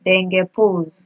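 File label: "dengepose", Nigerian Pidgin, female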